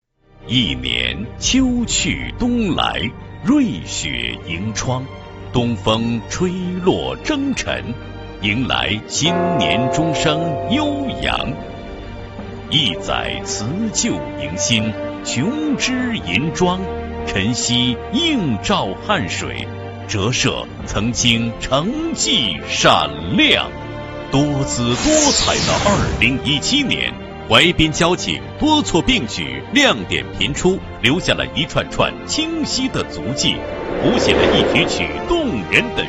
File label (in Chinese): 男国 交警大队年终汇报 成熟